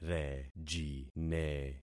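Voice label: "rejine", concatenated syllables; Affixing experiment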